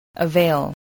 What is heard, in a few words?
"avail", english